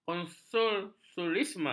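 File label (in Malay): "konsumerisme", Malay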